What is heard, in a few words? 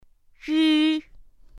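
"ri", Mandarin Chinese pinyin; pinyin2; pinyinji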